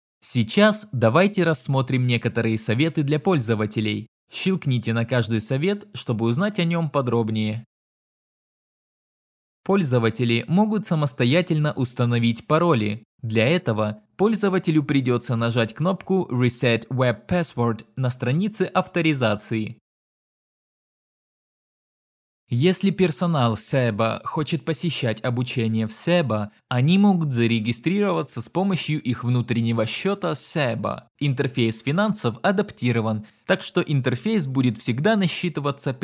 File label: russian training e-learning